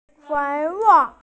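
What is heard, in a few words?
"fei2wo4", Mandarin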